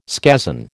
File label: "scazon", American